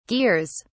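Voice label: "gears", British English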